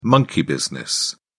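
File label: "monkey business", English, British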